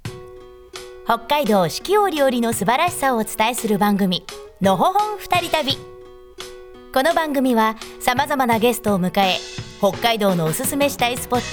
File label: voice narration